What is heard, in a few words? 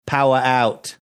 australian accent; power out